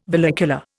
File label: "vallecula", Indian